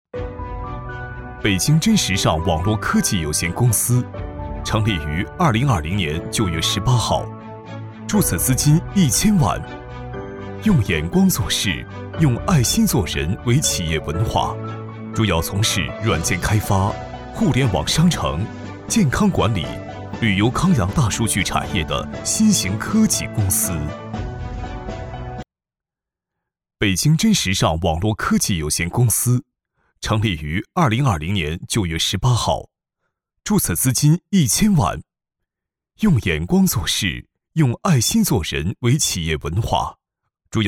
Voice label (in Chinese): 男 科技感, 厚重有力 年轻时尚